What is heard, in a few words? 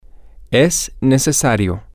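it is necessary, spanish, male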